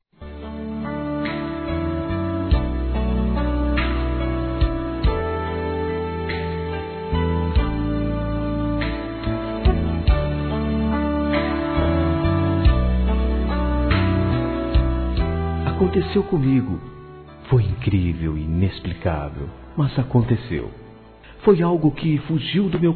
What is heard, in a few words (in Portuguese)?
Telemensagens Amor Masc; Telemensagens 2017